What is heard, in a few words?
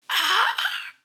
Female zombie; Project Zomboid v41.73